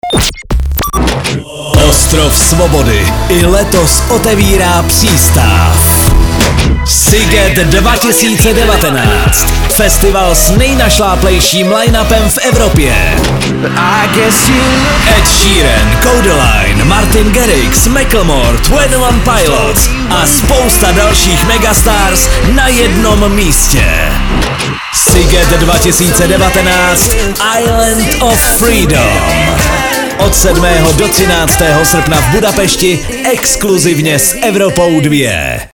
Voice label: promo; sziget